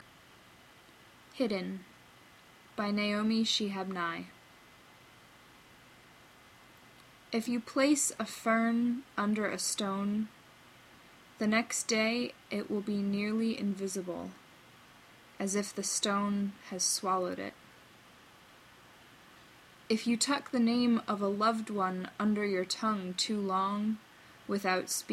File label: Poem